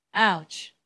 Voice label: Voice Acting es

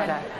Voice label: Voice Acting es F

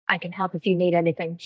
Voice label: Voice Acting es F3

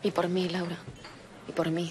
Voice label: Voice Acting es F

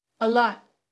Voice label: Voice Acting es

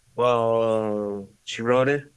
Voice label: Voice Acting es M

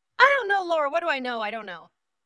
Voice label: VoiceActing es

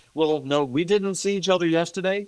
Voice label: Voice Acting, es, M

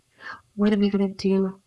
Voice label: Voice Acting, es, F0

